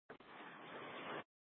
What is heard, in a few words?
Public Transit System Light Rail Ops, scanner